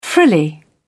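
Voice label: "frilly", British English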